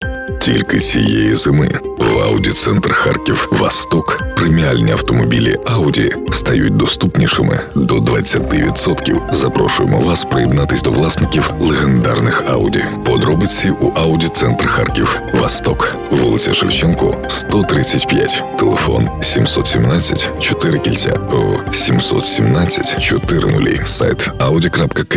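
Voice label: Ukrainian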